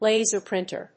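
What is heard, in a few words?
"laser printer", English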